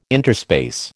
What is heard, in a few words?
"interspace", American